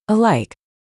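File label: "Alike", English pronunciation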